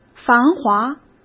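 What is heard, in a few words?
"繁华", Mandarin Chinese; hsk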